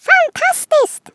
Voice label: "brilliant", Swedish